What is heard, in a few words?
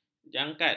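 "jangkat", Malay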